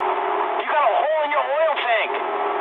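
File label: damaged oil mood medium, speech English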